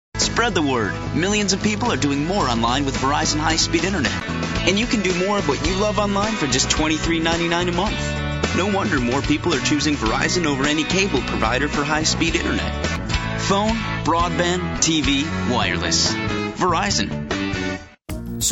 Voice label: commercial